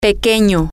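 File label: small, Spanish, female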